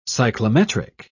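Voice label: "cyclometric", British English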